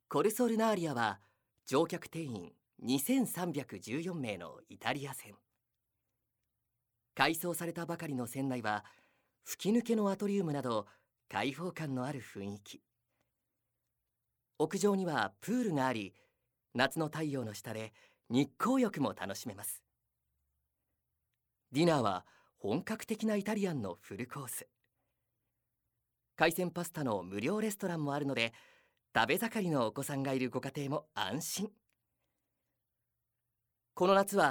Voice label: narration